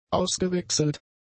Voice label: "ausgewechselt", German; adjectives